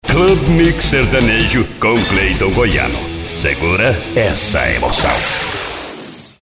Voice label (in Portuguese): vinheta